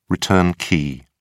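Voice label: "return key", British English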